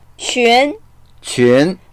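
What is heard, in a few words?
"qun2", Mandarin Chinese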